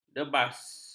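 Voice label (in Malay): "debas", Malay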